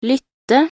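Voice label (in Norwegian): "lytte", Norwegian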